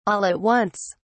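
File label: "all at once", English, British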